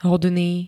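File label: "hodný", Slovak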